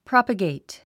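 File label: "propagate", English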